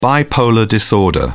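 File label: "bipolar disorder", British English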